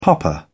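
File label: "popper", British English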